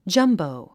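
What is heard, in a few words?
"jumbo", English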